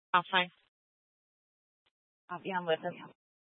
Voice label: Dispatch, scanner

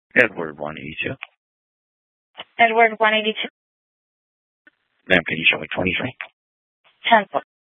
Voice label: Sheriff, scanner

